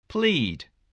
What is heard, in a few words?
"plead", voice spelling